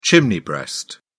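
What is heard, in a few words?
"chimney breast", English, British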